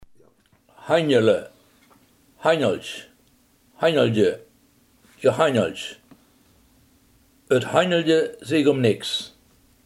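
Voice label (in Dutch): handelen, Limburgse gesproken woordenboeken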